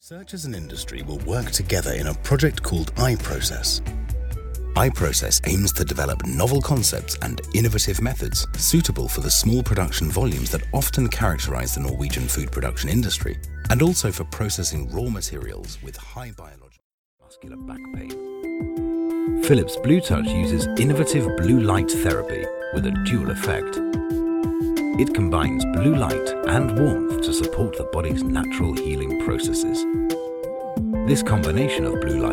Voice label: explainer video voiceover